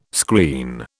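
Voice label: "screen", British English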